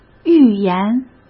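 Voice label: "寓言", Mandarin Chinese; hsk; chinese-flashcards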